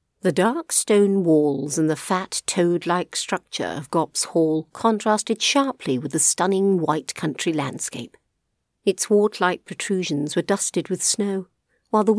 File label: Audiobook Narration